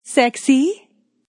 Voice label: Female Says Sexy; Talking; Voice